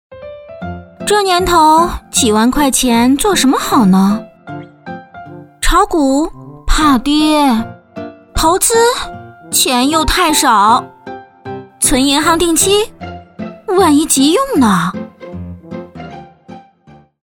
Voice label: 宣传片 病毒; 皇氏桂林银行

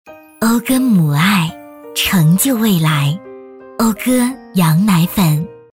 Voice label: 广告 羊奶粉 温柔; 食品饮料; 讴歌羊奶粉

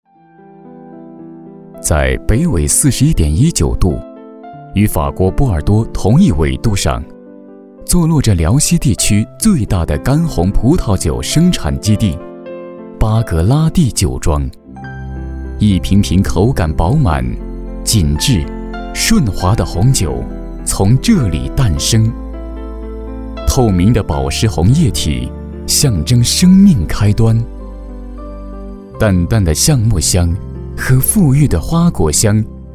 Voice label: 专题, 产品, 葡萄酒, 讲述

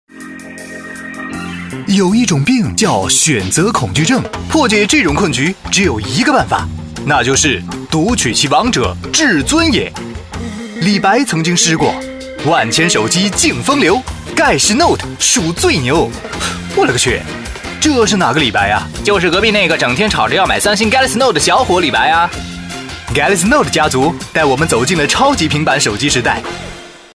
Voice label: 时尚诙谐; 宣传片; 病毒; 专题三星note

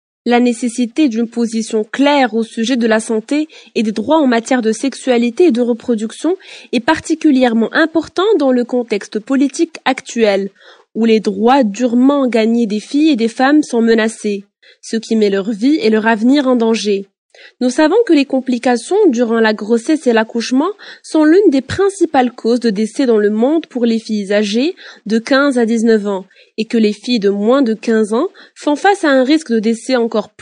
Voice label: 法语女, 外语, 小语种

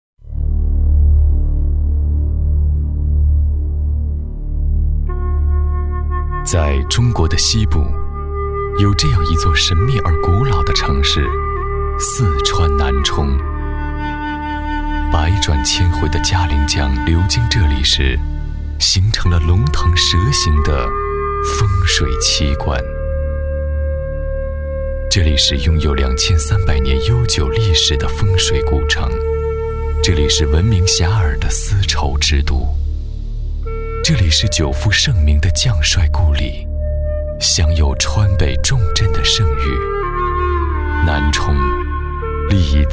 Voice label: 宣传片, 沉稳; 城市; 南充

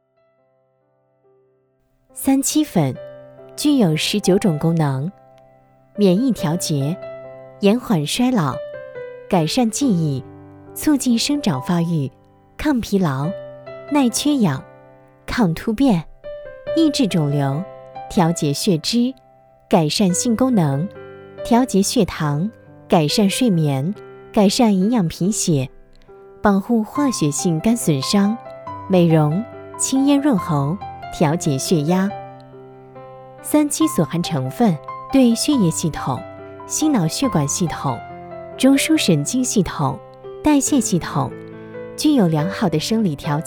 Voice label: 专题 产品 三七粉 自然, 女

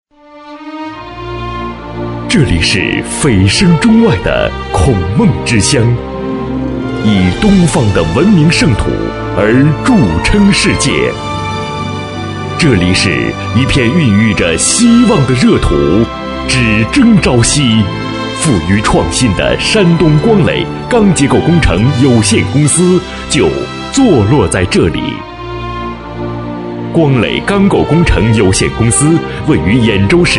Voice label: 男国 专题 企业 宣传介绍 浑厚; 集团企业宣传介绍

